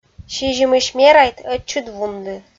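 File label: "сизимысь мерайт - ӧтчыд вунды", Komi, female; kpv audio dict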